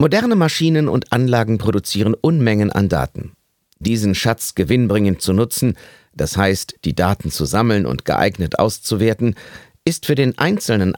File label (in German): Werbung